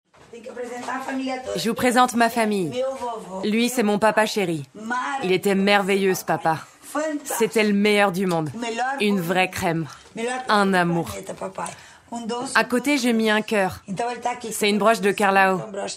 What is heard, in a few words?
voice over; Zambeze